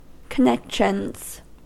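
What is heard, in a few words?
"connections", American English; pronunciations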